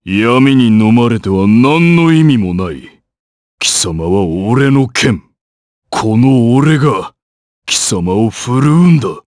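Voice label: voice, Japanese; Bernheim; kingsraid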